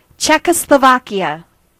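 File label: "czech", Thai; gcompris; geography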